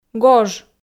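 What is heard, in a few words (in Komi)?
"гож", Komi-Zyrian, female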